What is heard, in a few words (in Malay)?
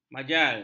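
"majal", Malay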